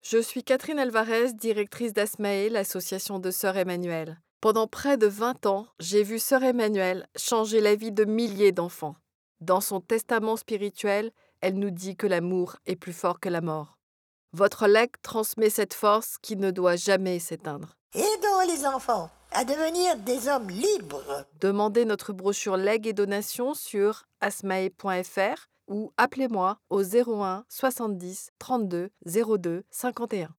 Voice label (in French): Spot Voix